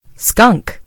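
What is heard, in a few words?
"skunk", American English; gcompris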